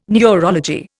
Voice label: "neurology", Indian